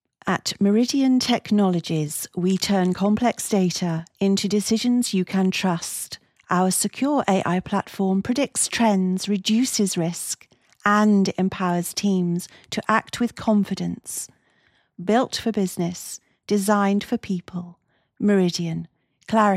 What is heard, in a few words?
CORPORATE Tech Business, voiceovers